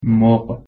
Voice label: "moG", Persian